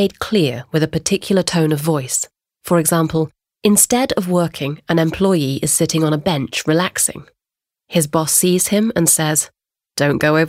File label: Sarcasm, Neutral